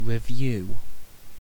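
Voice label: "revue", British English; pronunciations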